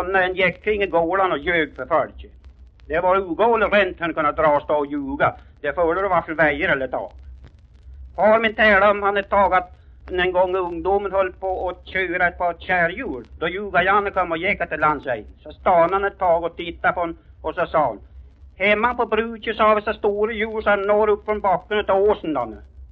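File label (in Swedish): Alfta Hälsingland, svenska sagor dialekter; Folkminnen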